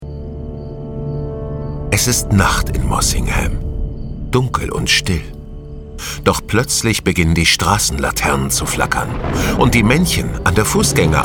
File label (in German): Kinderhörbuch